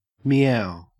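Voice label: "meow", Australian English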